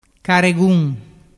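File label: "caregun", Vernazzese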